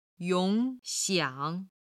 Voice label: "yong3xiang3", chinese